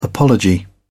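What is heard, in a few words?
"apology", British English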